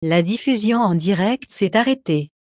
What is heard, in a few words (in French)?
live Streaming Off fr CA; jitsi meet